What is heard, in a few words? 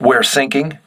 voice message hull breach, crew ships english